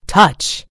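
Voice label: "touch", American English; gcompris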